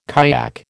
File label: "kayak", American